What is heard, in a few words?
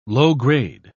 "low-grade", pronounce